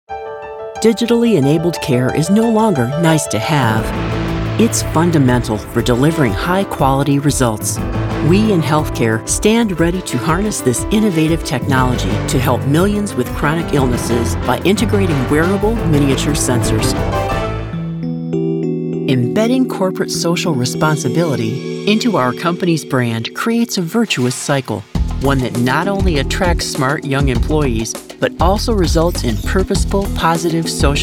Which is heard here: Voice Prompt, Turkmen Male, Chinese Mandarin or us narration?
us narration